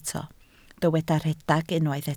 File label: "rhedeg", Welsh